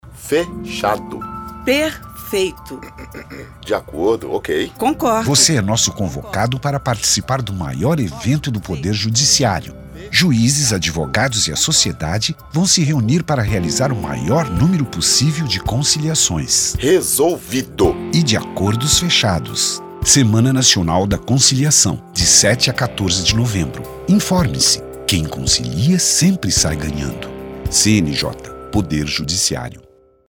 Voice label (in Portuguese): spot